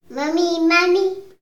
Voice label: Baby Says Mummy